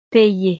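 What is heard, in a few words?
"payer", French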